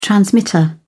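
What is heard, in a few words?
"transmitter", British English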